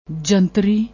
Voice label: "jantri", Punjabi

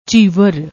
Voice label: "jhivr", Punjabi

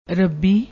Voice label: "rubbi", Punjabi

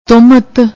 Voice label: "tohmat", Punjabi; ਤੁਹਮਤ

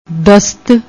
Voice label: "dasat", Punjabi; ਦਸਤ

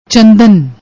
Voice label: "chandan", Punjabi

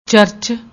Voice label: "charach", Punjabi; ਚਰਚ

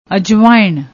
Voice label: "ajmain", Punjabi; ਅਜਵਾਇਣ

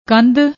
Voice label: "kandh", Punjabi; ਕੰਧ

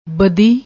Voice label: "baddi", Punjabi

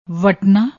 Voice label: "watnaa", Punjabi; ਵਟਨਾ

